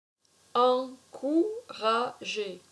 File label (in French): "Encourager", french; to encourage: encourager: j'encourage; j'ai en-cou-ra-gé